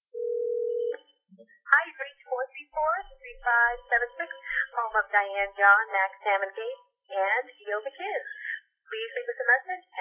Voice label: answeringmachine